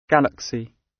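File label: "galaxy", British; Space, the planets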